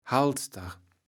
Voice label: "Halster", Platt

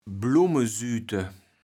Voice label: "Blummesüüte", platt

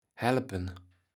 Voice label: "Helpen", Platt

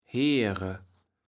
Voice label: "Heere", Platt

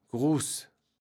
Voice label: "Grus", Platt

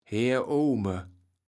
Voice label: "Heeroome", Platt

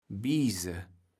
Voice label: "Biese", platt

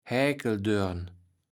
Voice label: "Häkeldörn", Platt

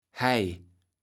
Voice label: "Heij", Platt

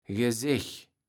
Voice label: "Gesech", Platt

